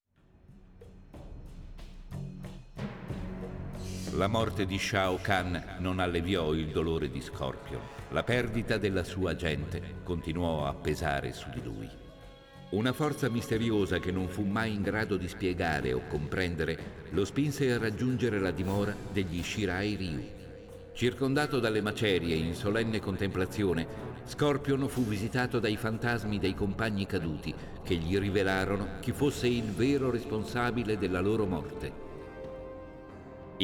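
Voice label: music voice-over endings Italian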